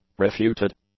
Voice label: "refuted", English; adjectives